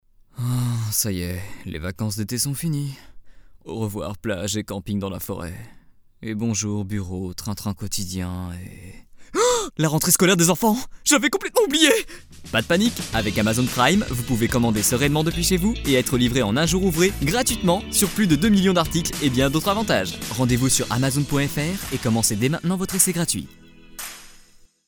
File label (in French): voix off pub comédie; Amazon Prime